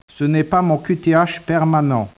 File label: speech, French